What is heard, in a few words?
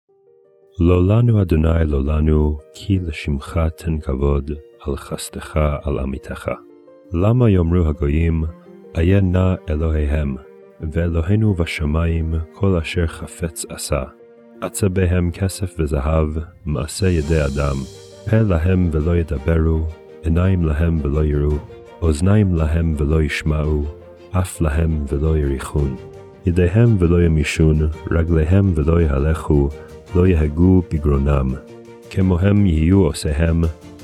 poetry and prayer psalm hebrew